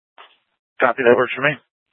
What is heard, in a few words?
Scottsdale Police, Simulcast